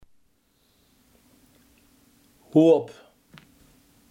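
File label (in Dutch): hoop, Limburgse gesproken woordenboeken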